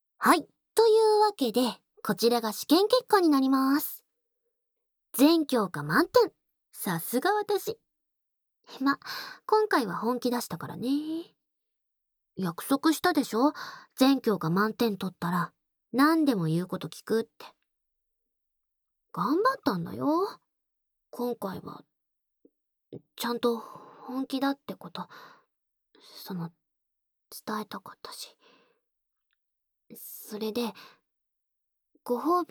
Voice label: ASMR; テストの後はしゅきしゅき子作りエッチでお嫁さん宣言してくる求愛幼馴染♪; 効果音カット